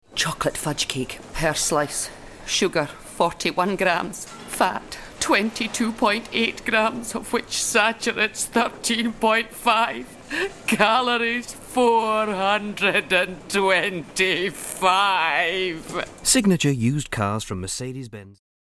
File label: Comedy, Dramatic; Used Cars